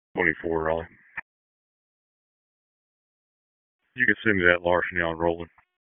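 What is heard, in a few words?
Raleigh Police Northeast District Dispatch, p25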